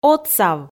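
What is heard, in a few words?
"отсав", Komi, female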